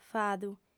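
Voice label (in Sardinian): "fadu", female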